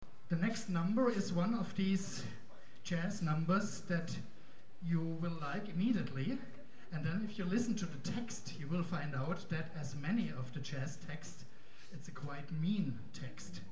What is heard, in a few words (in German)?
Ansage